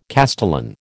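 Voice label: "castellan", American English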